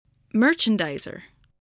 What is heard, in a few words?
"merchandiser", voice, spelling